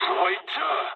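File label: player killed enemy mood med, speech japanese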